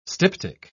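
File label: "styptic", English, uk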